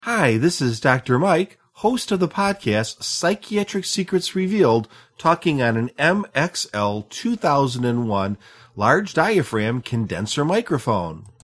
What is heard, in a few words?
MXL2001